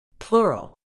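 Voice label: "pleural", medical English, British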